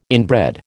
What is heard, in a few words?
"inbred", American